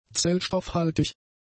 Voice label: "zellstoffhaltig", German; adjectives